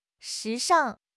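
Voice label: "shi2 shang4", Chinese; 汉语口语新时代-初级下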